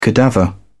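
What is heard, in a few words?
"cadaver", British English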